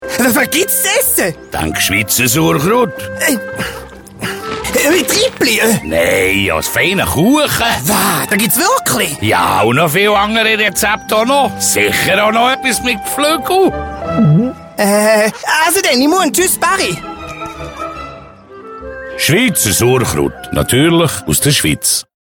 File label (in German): Sauerkraut SG Dialekt